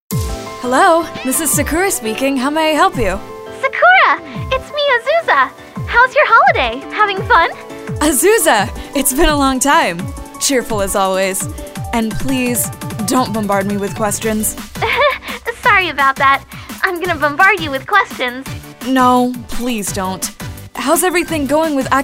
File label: Character